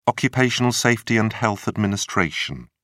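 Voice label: "occupational safety and health administration", British English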